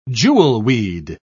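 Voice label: "jewelweed", British English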